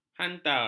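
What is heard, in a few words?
"hantar", Malay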